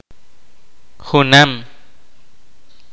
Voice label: kaqchikel; even